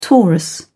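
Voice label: "taurus", British English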